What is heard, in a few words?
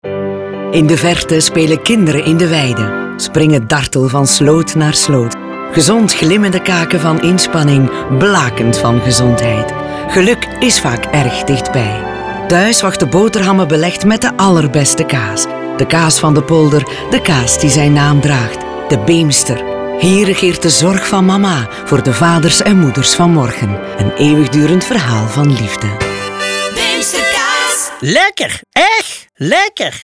Flemish radio ad; Beemster